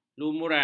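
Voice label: "lumuran", Malay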